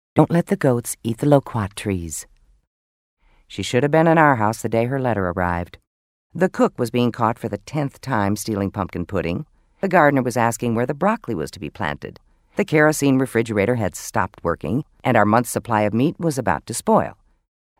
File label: Audio Books